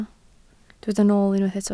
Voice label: "nool", Welsh